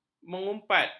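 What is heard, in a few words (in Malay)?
"mengumpat", Malay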